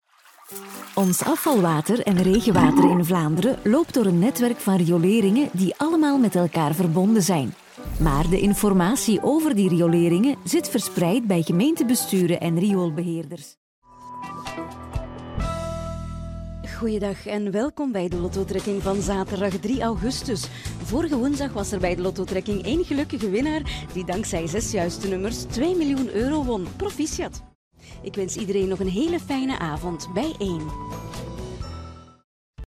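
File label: Flemish, female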